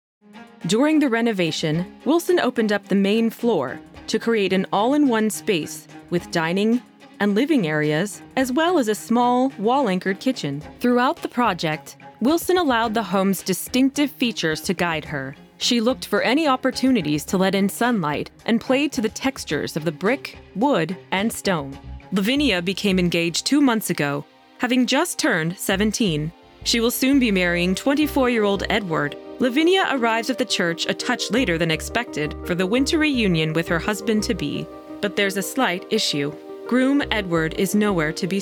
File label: Narration